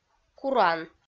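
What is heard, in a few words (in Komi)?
"куран", Komi-Zyrian, female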